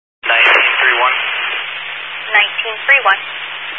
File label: Highway Patrol, Phoenix Metro West Area, scanner